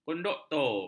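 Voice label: "konduktor", Malay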